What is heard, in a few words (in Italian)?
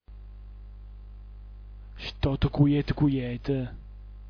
"stotcujetcujet", dialetto giulianova